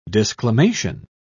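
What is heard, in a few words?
"disclamation", English, British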